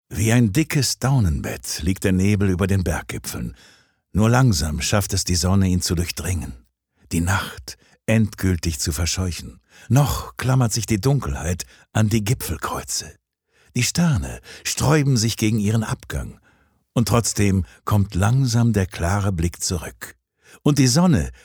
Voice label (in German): Schauspiel Variabel